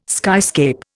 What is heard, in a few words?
"skyscape", Indian